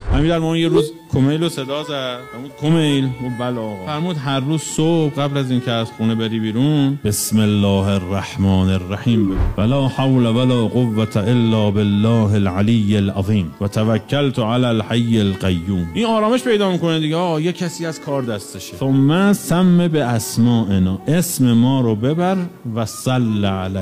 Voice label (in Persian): سخنرانی